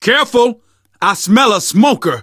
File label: heard smoker, survivor voice